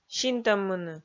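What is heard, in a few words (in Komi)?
"синтӧммыны", Komi, female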